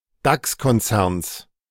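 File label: "DAX Konzerns", German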